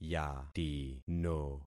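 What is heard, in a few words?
"yatino", concatenated syllables; Affixing experiment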